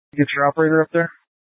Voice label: Light Rail Emergency, scanner